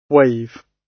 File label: "wave", English